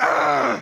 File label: Mad; EDDY; Ed Edd n Eddy; Adromulus Pack; EEnE